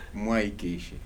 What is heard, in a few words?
"I am leaving" response, Kibembe